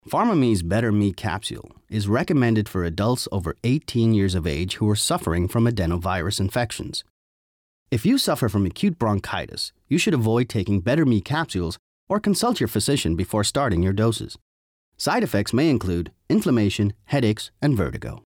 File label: US midatlantic; Virus